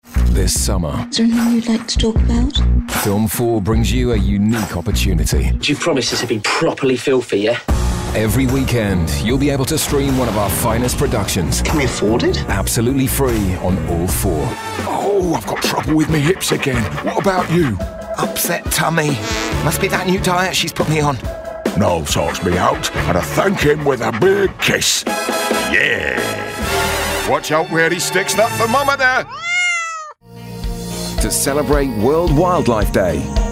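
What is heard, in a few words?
British commercial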